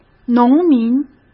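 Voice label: "农民", Mandarin; chinese flashcards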